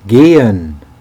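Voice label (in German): "gehen", German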